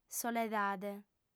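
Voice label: "soledade", female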